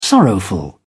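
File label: "sorrowful", British English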